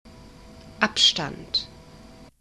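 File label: "abstand", German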